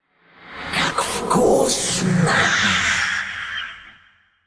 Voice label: Androgynous Faceless Attack